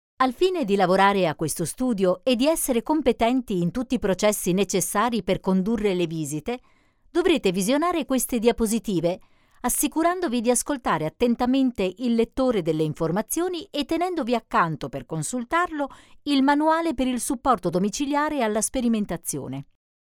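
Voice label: e-learning, Italian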